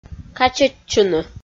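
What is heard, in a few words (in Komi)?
"качӧдчыны", Komi, female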